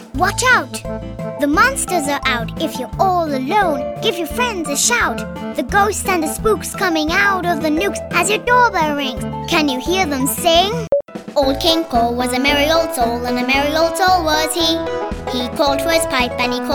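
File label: rhyme, English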